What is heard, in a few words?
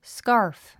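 "scarf", English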